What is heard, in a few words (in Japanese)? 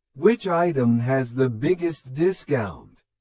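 スロー, リスニング; You will hear some conversations between two or more people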